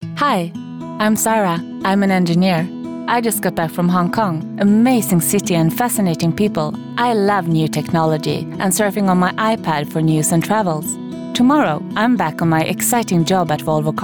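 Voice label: English female scandinavian english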